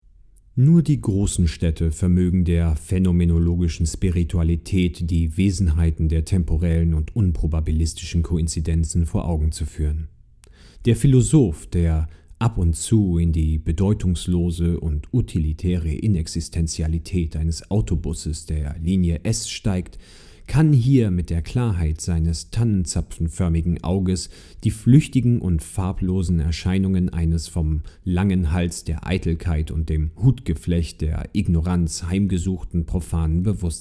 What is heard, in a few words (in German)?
Sprechertest gelesen